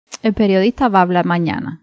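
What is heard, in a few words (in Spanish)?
acento oracional el periodista va a hablar mañana cuba, pinar del rio femenina; spansk; fonetica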